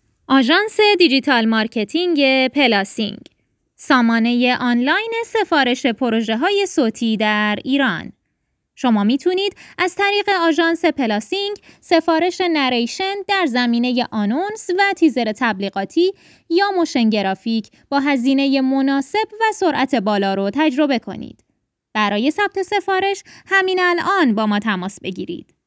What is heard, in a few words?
narrator